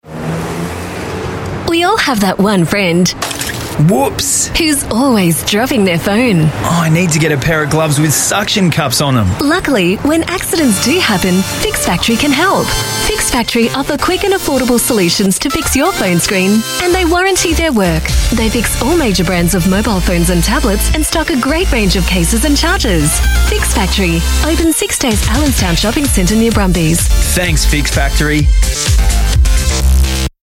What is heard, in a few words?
AU MidAtlantic English, Australian Female; Fix Factory